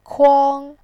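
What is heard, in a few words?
"kuang1", Mandarin Chinese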